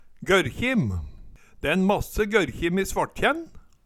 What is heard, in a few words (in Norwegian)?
gørrkjim, Numedalsmål